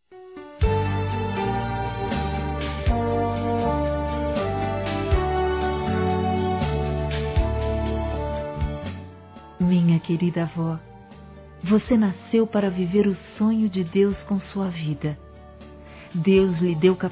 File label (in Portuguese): Telemensagens, Aniversários, Fem; ANIV. Evangelico